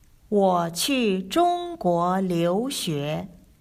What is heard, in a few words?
chinese story